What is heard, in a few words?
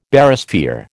"Barysphere", American